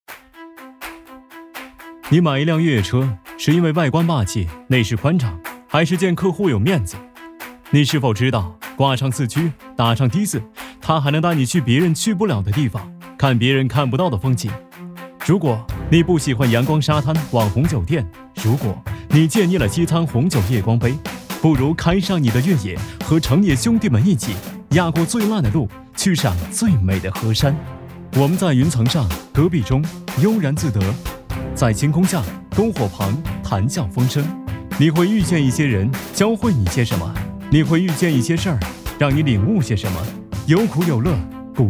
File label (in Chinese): 汽车探索广告